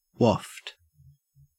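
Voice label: "waft", Australian English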